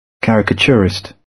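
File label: "caricaturist", British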